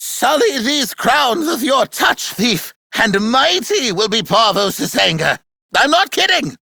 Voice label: Treasure Taunt, Corpus Aristocrat Manager, Battle, Barks, Dialog; Warframe; Treasurer